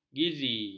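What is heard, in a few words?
"gizi", Malay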